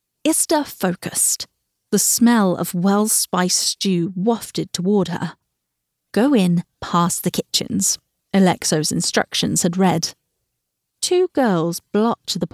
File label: audiobooks; British